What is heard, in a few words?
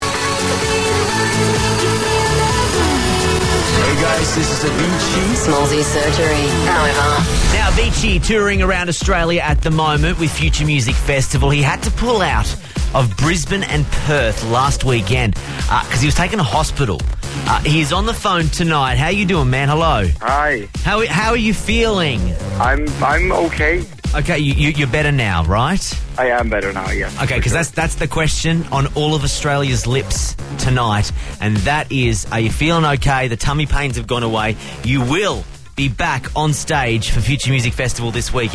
FM; Nova 969